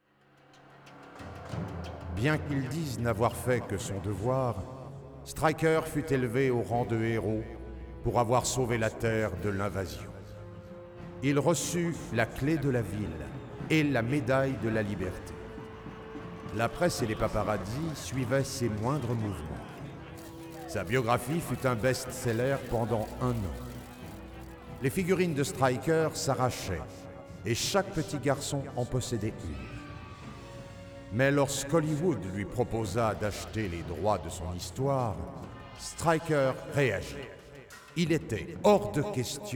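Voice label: music voice over endings French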